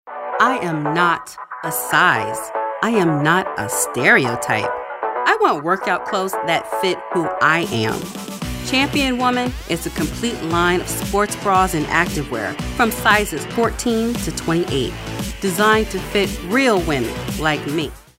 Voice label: attitude

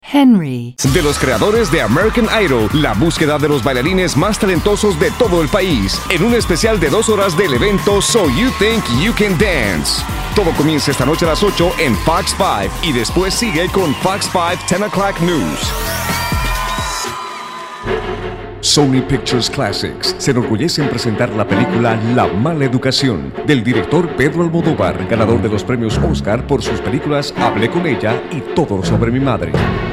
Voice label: Spanish

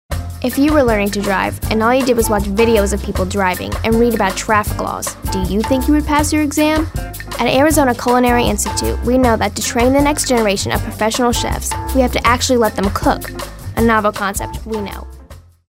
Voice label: attitude

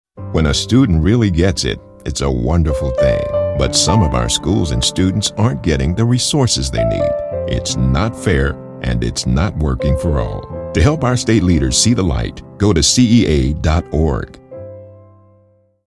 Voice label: concerned

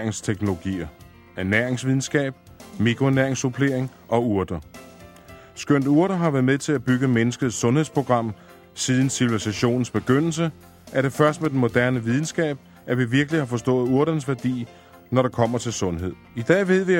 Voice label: Danish

